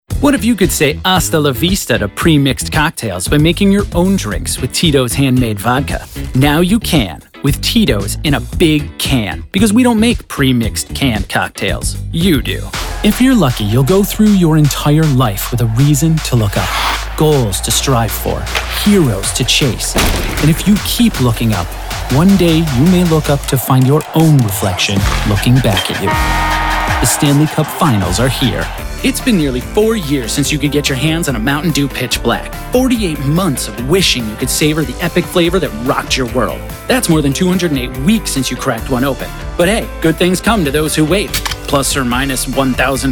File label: VO Commercial